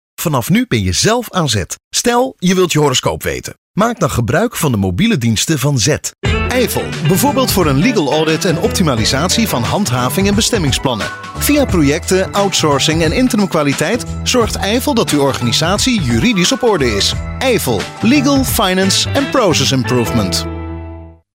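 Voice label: Dutch, male, corporate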